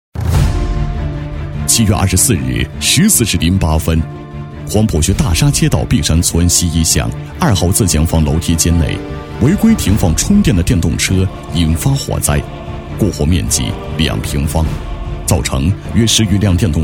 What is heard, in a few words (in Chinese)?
男 严肃讲述, 厚重大气 成熟厚重; 火灾; 男123-火灾-严肃讲述